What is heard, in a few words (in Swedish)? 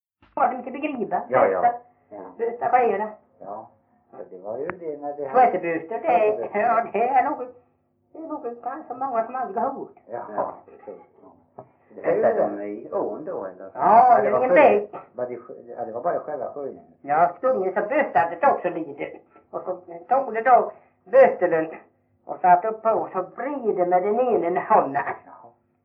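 Veddige Halland, Svenska sagor dialekter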